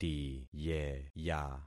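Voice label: "tiyeya", concatenated syllables; Affixing experiment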